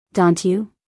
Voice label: "Dont you" us female